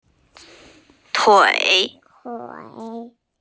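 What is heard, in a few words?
"tui3", Mandarin Chinese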